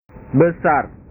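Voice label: "besar", Indonesian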